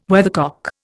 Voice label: "weathercock", Indian